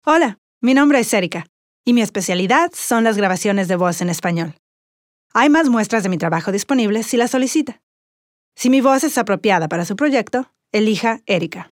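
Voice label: Spanish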